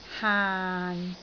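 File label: "haan6", Cantonese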